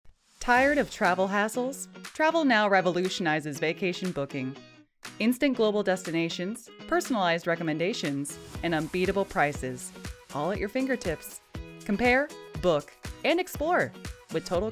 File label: Commercial